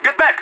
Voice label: radio bot fall back; player vo leet